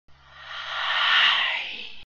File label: ghostly hi